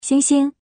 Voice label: slot fruits female